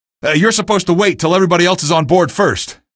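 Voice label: citizen deny train, male; vo; npc; source engine; episodic; episode 1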